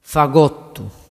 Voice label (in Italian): "fagottu", dialettu